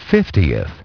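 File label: "fiftieth", English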